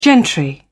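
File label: "gentry", British English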